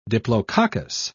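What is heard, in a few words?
"diplococcus", British English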